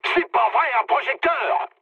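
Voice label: searchlite, mood medium, French; War Thunder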